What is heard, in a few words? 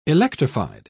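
"electrified", British English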